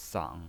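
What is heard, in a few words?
"sang", Mandarin Chinese pinyin voice, third tone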